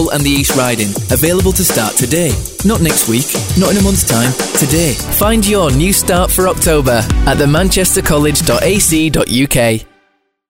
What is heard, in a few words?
college student voice over